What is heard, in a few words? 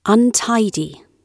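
"untidy", British English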